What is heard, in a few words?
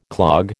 "clog", American